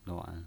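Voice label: "nuan" third tone, pinyin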